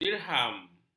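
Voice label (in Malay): "dirham", Malay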